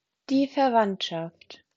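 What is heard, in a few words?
"Verwandschaft", German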